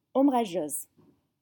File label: "ombrageuse", French